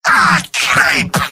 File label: negative vocalization; demoman; mvm